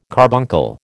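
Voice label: "carbuncle", American English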